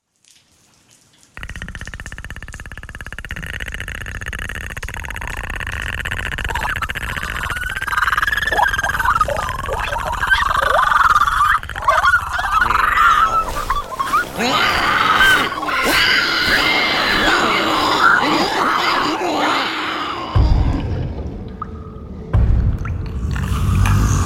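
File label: CREATURE, Youthful Upbeat Millennial Voice Actor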